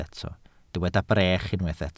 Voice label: "brech", welsh

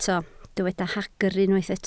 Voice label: "hagr", Welsh

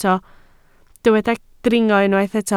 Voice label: "dringo", Welsh

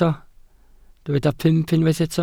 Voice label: "pump", Welsh

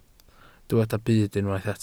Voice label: "byd", Welsh

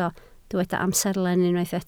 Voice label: "amserlen", Welsh

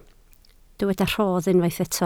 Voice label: "rhodd", Welsh

